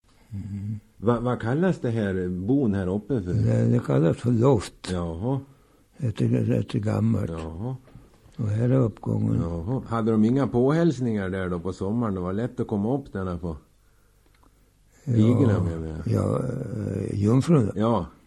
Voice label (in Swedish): Munktorp, dialekter; VSTM